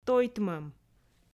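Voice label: "тойтмӧм", Komi, female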